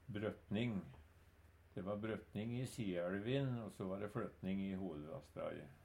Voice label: "brøtning", Numedalsmål

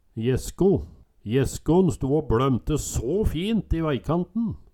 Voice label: jessko, Numedalsmål

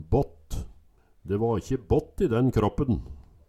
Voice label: batt, Numedalsmål; De va ikkje bått i den kråppen

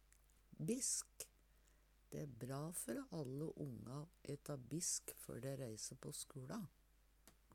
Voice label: "bisk", Numedalsmål; Dæ æ bra før alle onga o eta bisk før dei reise på skula.; Hushald, mat, drikke

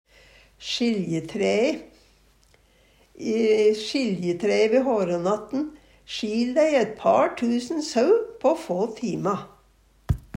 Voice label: sjiljetræe, Numedalsmål; Eksempel på bruk I sjiljetræe ve Hårånattin sjil dei eit par tusen sau på få tima.

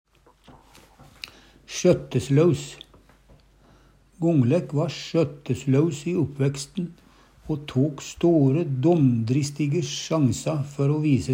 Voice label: "sjøtteslaus", Numedalsmål; Gonlæk va sjøtteslaus i oppvæksten o tok store, domdristige sjansa før o vise se.

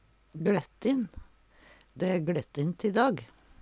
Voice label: glettin, Numedalsmål; Adjektiv

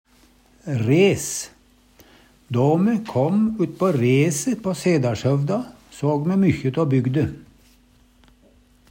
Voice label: res, Numedalsmål; Då me kåm utpå rese på SedaLshøvda, såg me mykje tå bygde.

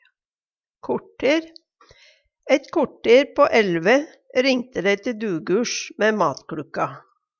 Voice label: "kortel", Numedalsmål; Substantiv inkjekjønn; Tida (dagen, året, merkedagar); Tal, mål, vekt

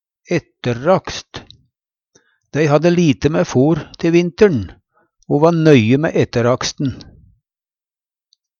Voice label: "etterakst", Numedalsmål; Til etterraksten vart det brukt river der tindane sat tett; veLrakstrive

